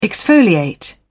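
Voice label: "exfoliate", British English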